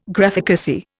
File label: "Graphicacy", Indian